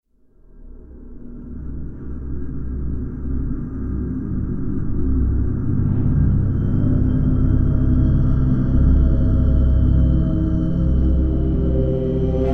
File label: Dark voice